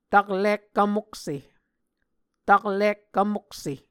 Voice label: "taklik-kvmokse", Muscogee